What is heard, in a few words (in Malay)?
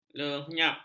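"lenyap", Malay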